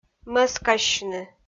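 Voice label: "мӧскассьыны", Komi-Zyrian, female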